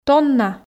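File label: "тонна", Komi, female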